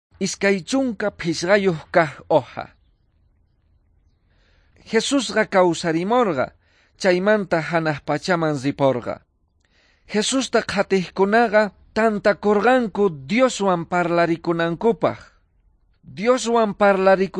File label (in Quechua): quechua bolivian cochabamba; good news